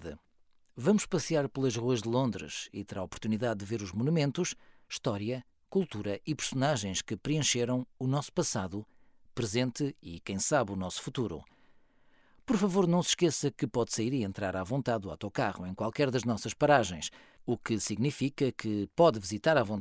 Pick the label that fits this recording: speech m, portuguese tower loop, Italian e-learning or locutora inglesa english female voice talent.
portuguese tower loop